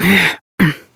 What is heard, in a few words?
Horse Mount Adult, Dialog; Duviri